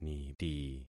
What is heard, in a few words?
"niti", concat syllables; Affixing experiment